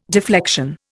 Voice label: "deflection", Indian